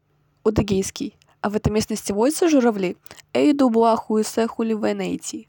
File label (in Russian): удэгейский предложение